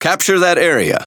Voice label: Speech Quick Commands Enemy Point, EN; World Of Warships